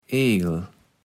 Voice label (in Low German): "Egel", kaevels platt